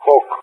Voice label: "Hawk", ENG; AirDefence; Allied Flight; DCS

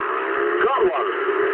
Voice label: eng player killed enemy mood med, speech eng

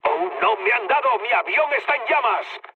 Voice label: on fire mood low; War Thunder

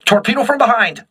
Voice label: voice message torpedo rear, crew ships english

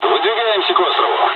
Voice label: dialogs russian; War Thunder

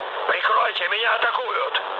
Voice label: aircraft damaged, mood low, speech ru; War Thunder

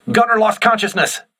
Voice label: voice message gunner contused, crew ships english

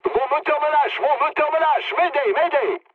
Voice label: damaged engine mood low, speech fr; War Thunder

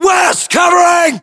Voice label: US response covering west, battlechatter, english

